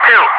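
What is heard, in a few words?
"3", English speech, Wingman; loud; DCS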